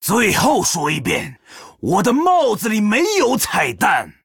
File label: Male Troll, zh; HAPPY NOBLEGARDEN